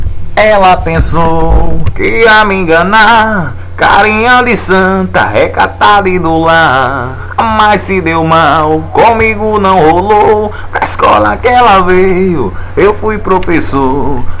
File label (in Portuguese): voz ao vivo